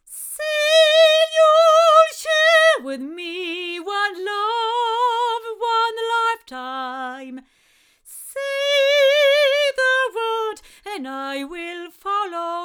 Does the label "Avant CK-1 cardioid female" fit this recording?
Yes